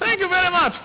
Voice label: "Thank you"